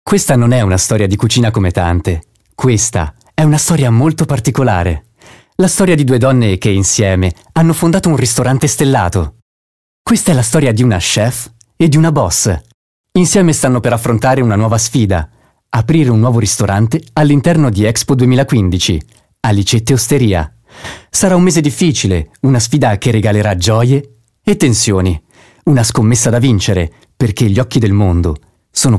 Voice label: italian voice over male commercial